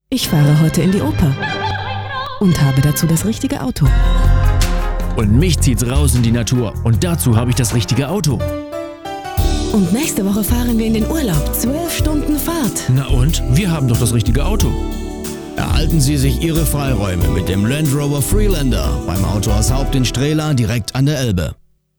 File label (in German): Werbung